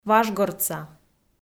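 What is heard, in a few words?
"важгортса", Komi-Zyrian, female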